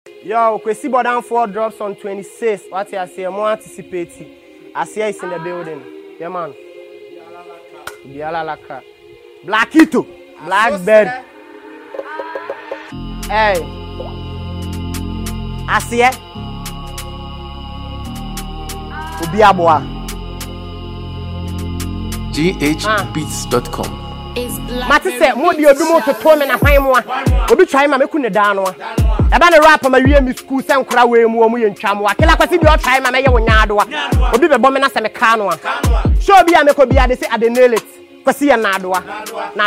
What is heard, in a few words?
Freestyle